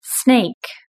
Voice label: "snake", british english